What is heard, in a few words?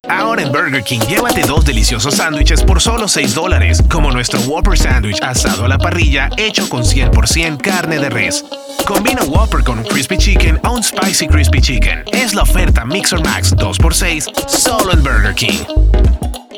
male spanish venezuelan neutral spanish latam commercial; burger king; devine voices